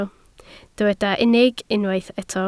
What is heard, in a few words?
"unig", Welsh